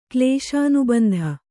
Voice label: "ಕ್ಲೇಶಾನುಬಂಧ", kannada